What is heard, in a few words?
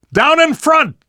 down in front, survivor voice; namvet; Left 4 Dead 2; left4dead2 dlc2